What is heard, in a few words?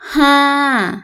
five, Thai; thai numbers